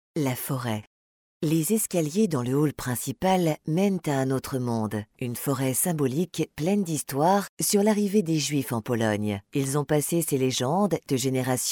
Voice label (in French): Audio guide musée, voix off; attente téléphonique audiotel